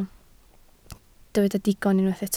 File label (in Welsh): "digon", Welsh